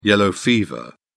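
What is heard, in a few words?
"yellow fever", British English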